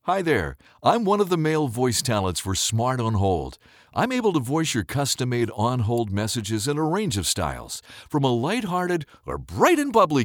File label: recorded message; Voice Over; On Hold Messages; smartonhold